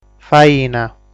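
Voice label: "Faina", Sardinian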